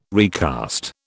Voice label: "recast", British